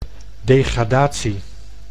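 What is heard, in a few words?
"degradatie", Dutch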